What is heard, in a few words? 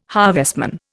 "harvestman", Indian